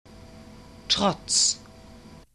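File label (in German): "trotz", German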